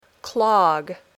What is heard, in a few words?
"clog", English